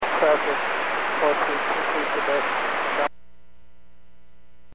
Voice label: Aviation 122.7; KSTE